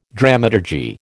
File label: "dramaturgy", American